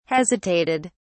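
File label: "hesitated", British English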